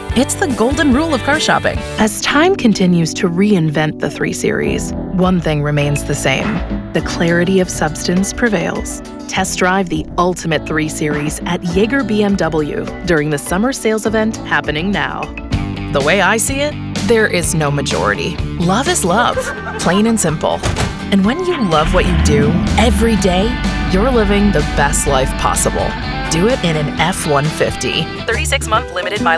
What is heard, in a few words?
Automotive, Female Voice Over